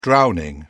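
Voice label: "drowning", British English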